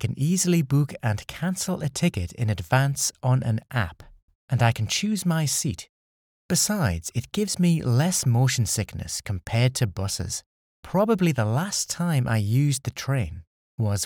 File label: Do you often use public transportation UK